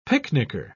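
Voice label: "picnicker", British English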